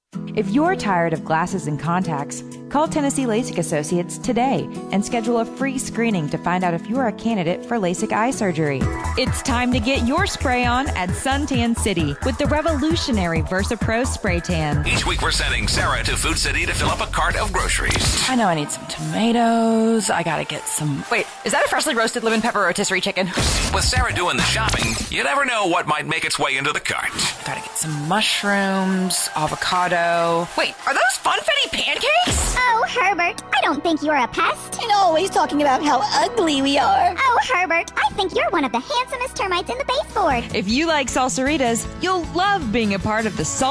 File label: USA